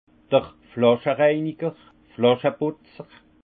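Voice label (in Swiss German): "flasche reiniger", Haut Rhin; vin; rince bouteille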